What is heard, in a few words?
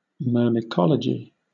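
"myrmecology", English, British accent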